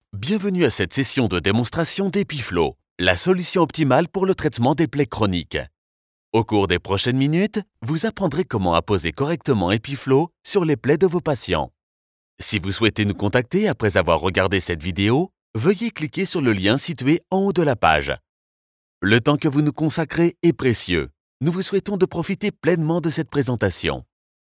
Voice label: fr elearning

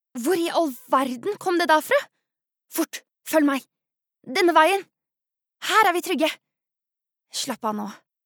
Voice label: Norwegian dub, female

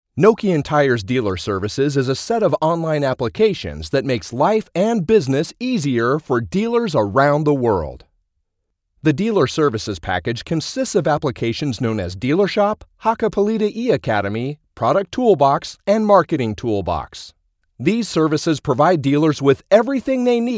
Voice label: us corporate

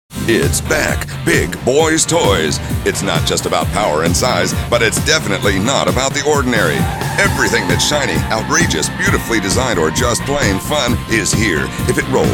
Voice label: us commercial

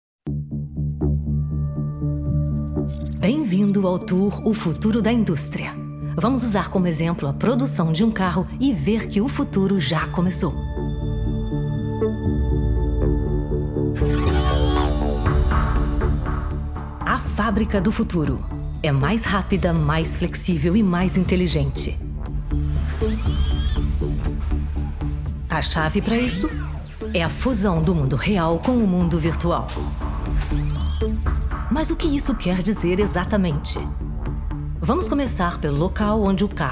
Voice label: br corporate, portugisisk-brasil, female

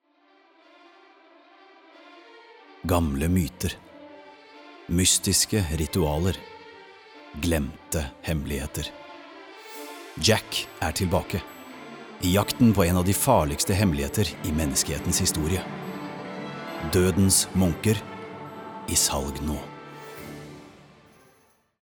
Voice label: no movie trailer

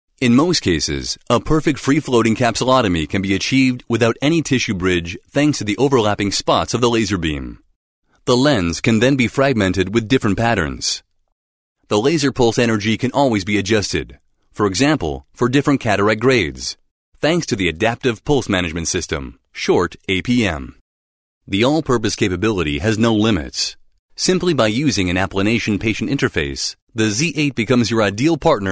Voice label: US medical, english us male

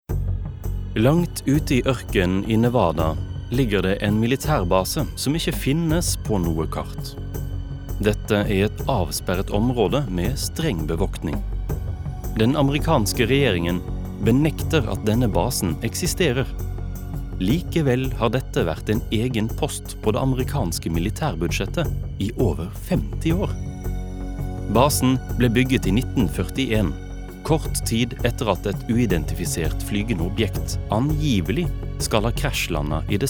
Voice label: no documentary

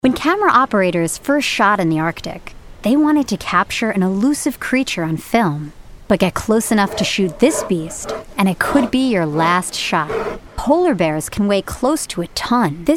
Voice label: us narration

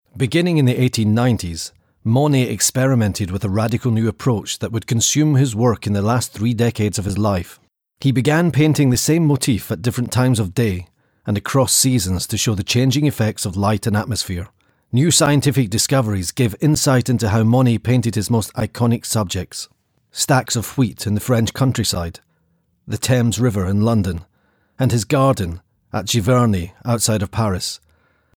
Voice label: uk scottish informative, engelsk-skotsk, mann

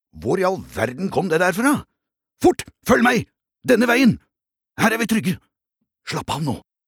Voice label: Norwegian dub, male

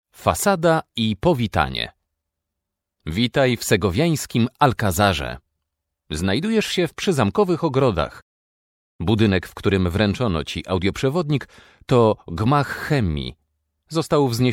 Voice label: pl audio guide

